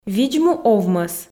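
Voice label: видз-му овмӧс, Komi, female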